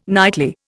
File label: "nightly", Indian